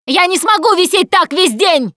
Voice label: ledge hang middle, russian, Survivor Voice Producer